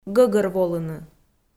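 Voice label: "гӧгӧрволыны", Komi, female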